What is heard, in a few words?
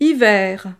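"hiver", French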